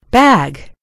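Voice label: "bag", American English; gcompris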